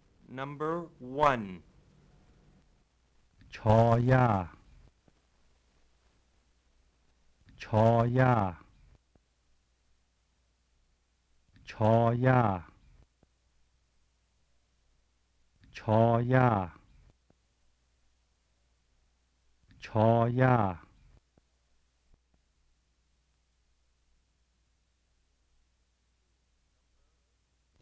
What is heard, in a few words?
Lahu Na Tones